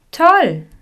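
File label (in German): "toll", German; Unity; LearnWithTouch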